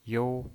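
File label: "you" Mandarin Chinese pinyin voice, first tone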